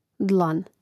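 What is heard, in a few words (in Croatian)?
"dlan", Hrvatski; mreznik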